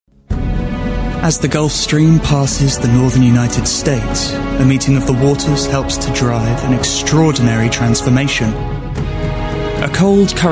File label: Nature Doc; Voice Realm